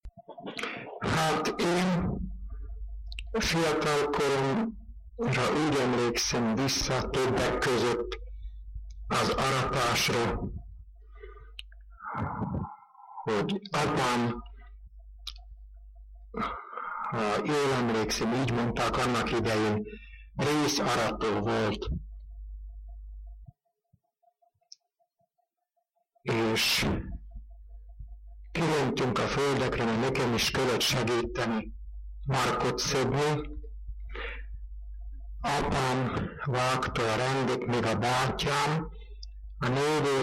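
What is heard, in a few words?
dialect collection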